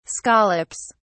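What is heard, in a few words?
"scallops", British English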